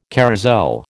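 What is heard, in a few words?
"carrousel", American English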